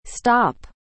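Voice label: "stop", English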